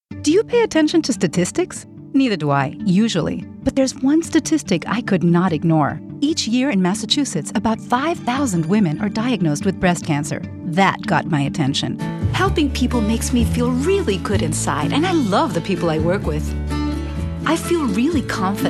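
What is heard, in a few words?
Commercial English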